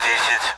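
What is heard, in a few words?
Speech Sound Russian Common AWACS Numbers; DCS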